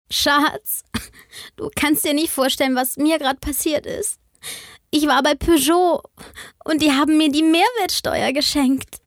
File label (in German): Werbung Traurig